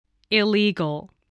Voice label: "illegal", voice spelling